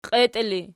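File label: "qietii" ergative, Archi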